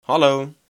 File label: "Hallo", Dutch